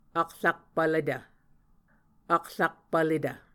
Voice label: "akrakpvletv", muscogee

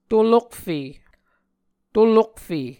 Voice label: "tolokfe", muscogee

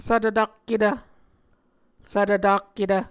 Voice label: "rvtvtakketv", Muscogee; Mvskoke Words with Repeated Consonants; Martin, Jack B., and Margaret McKane Mauldin. 2023. A Dictionary of Creek/Muskogee

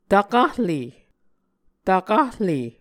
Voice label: "tvkahle", Muscogee

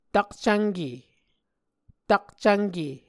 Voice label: "takcvnke", Muscogee

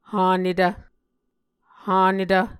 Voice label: "hanetv", Muscogee

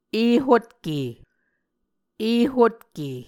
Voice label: "ehotke", muscogee

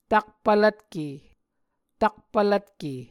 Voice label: "takpvlvtke", Muscogee

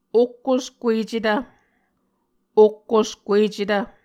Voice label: "okkoskuecetv", Muscogee; Mvskoke Words with Repeated Consonants; A Dictionary of Creek/Muskogee; SIL International

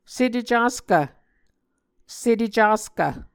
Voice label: "setecaskv", Muscogee

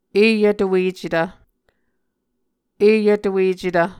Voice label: "eyvtuecetv", muscogee

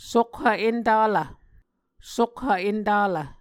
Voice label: "sokhv entalv", Muscogee

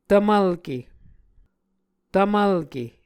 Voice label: "tvmvlke", Muscogee

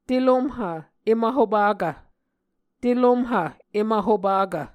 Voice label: "telomhv em vhopakv", Muscogee

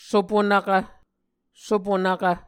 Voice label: "sopunvkv", Muscogee

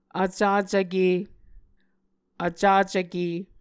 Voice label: "vcacvke", muscogee

